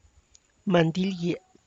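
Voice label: tlacochahuaya, Zapotec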